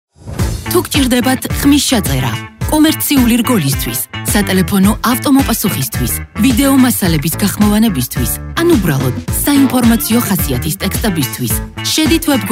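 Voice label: Georgian, female, commercial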